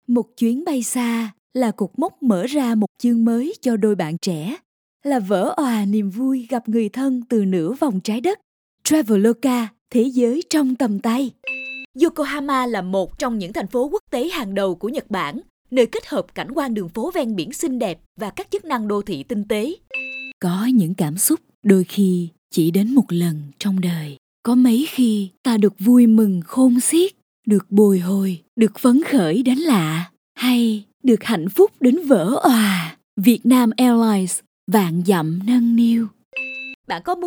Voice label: Vietnamese Southern samples